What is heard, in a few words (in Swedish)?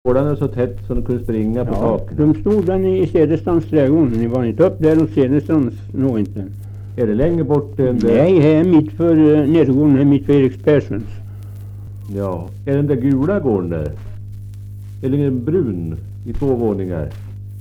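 Österfärnebo Gästrikland, dialekter, svenska sagor; Folkminnen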